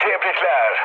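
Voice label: Turkish player killed enemy, mood med, speech; War Thunder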